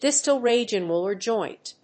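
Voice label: "distal radioulnar joint", English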